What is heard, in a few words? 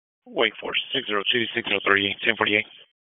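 Police Dispatch, p25; Wake Forest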